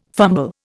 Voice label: "fumble", Indian